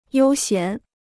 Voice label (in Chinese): "悠闲", Chinese